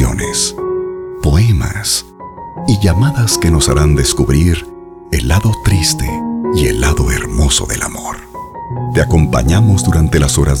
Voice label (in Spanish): locución tono romántica con musica